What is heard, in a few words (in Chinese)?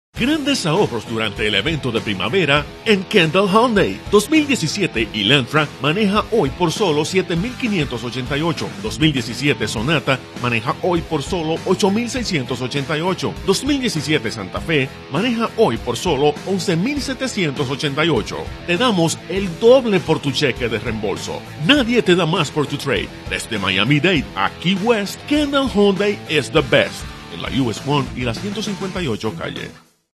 外籍葡萄牙语男 西班牙语